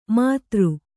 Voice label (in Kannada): "ಮದಿರೆ", Kannada